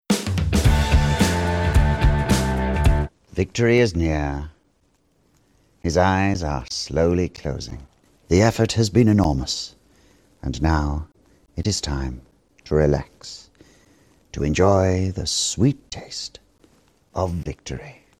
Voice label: male british english actor; ideasonora

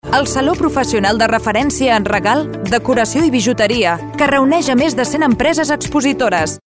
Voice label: female catalan event comercial; ideasonora

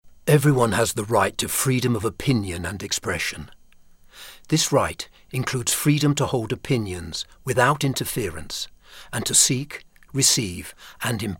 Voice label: male british english opinion comercial

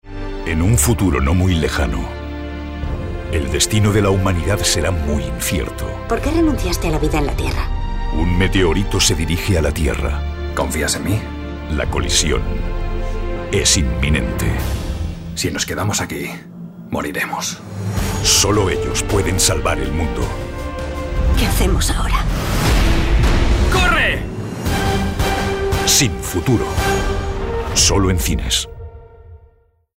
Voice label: male spanish trailer comercial; ideasonora

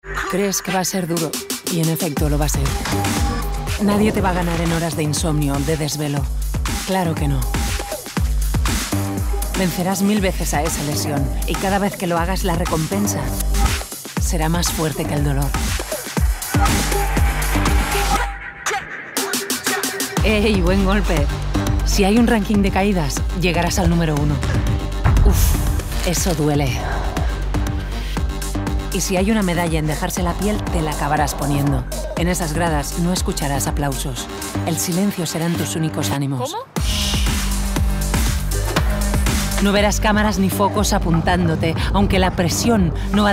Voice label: female spanish sport comercial; ideasonora

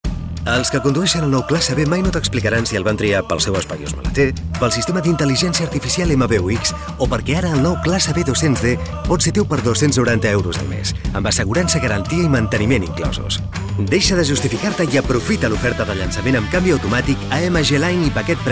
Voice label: male catalan car comercial; ideasonora

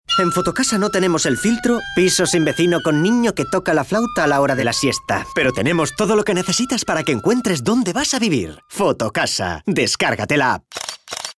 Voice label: male spanish rental comercial; ideasonora

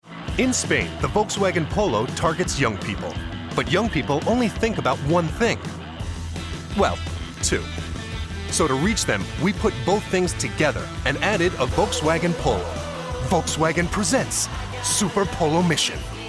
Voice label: male american english car comercial; ideasonora

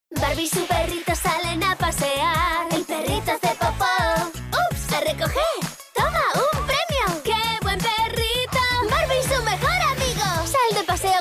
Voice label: female spanish comercial; barbie; ideasonora

